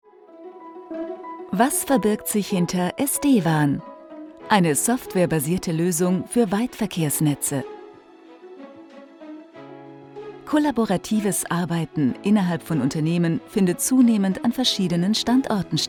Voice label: Imagefilm freundlich motivierend; o2 SD-WAN

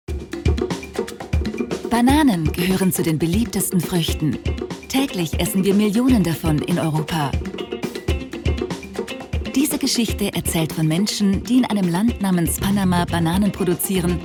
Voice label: Doku; Fairtrade Coobana

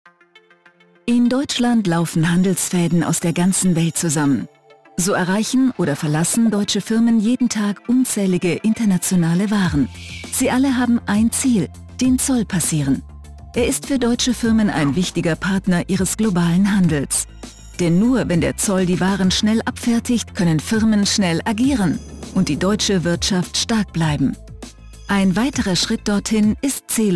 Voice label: Erklarfilm; Zelos Zoll

